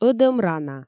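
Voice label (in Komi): ӧдӧм рана, Komi, female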